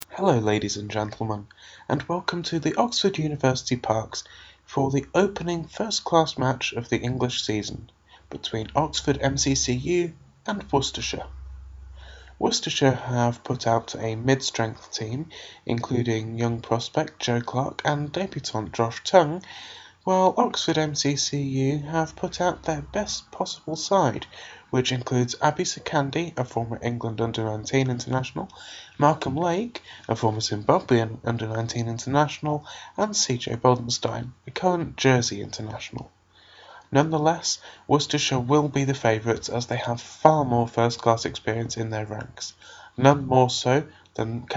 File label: announcement